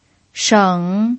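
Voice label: "sheng" Mandarin Chinese, third tone, pinyin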